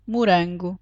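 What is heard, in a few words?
"morango", European Portuguese; fruta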